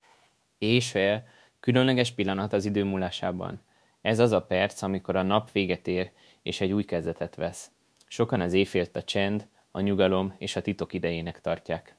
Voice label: synth, Hungarian; VibeVoice 7B Diffusion-head-LoRA Hungarian-CV17